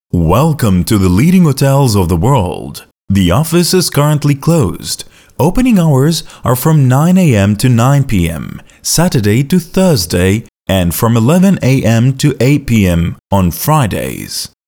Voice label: Greeting English; Leading Hotels Dubai